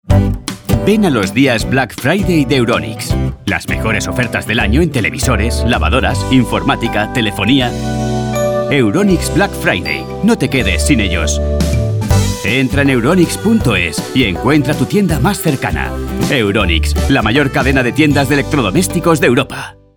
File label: radio